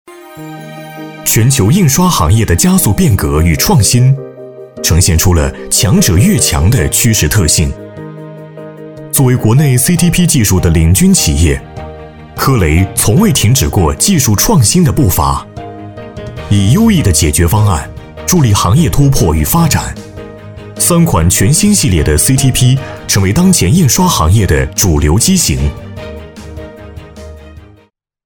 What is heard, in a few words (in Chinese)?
男 公司宣传 科技大气; 大气主流 科技时尚